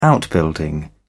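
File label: "outbuilding", British English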